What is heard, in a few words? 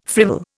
"frivol", Indian